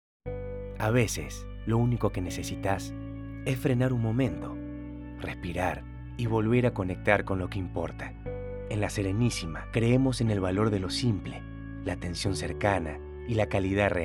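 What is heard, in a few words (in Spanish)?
calido relajado, espanol argentina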